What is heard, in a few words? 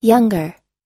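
"younger", American English; younger /jʌ́ŋgɚ; 若い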